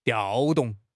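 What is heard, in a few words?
"调动", Mandarin Chinese; HSK; HSK6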